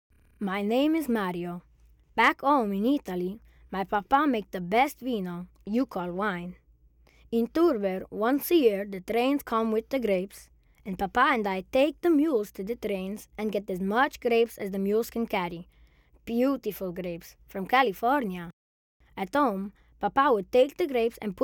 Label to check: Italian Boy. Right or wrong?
Right